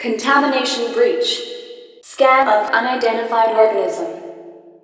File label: SCAN, VOICES COMPUTER; Messiah